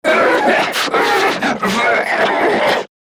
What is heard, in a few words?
Death Grineer Manic; Battle Barks